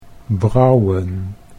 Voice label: "brouwen", Dutch

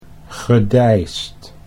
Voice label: "gedeisd", Dutch

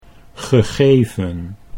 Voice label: "gegeven", Dutch

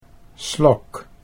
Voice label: "slok", Dutch

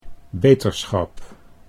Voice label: "beterschap", Dutch

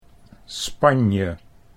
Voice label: "Spanje", Dutch

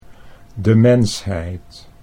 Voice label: "demensheid", Dutch

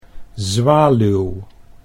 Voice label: "zwaluw", Dutch

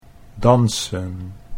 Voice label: "dansen", Dutch

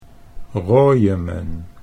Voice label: "rojemen", Dutch

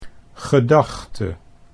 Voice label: "gedachte", Dutch

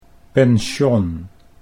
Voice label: "pension", Dutch

